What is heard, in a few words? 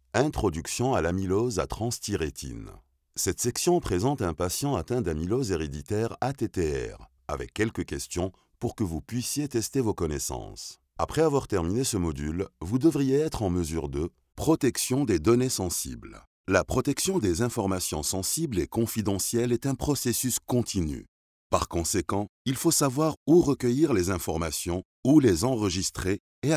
arabic e-learning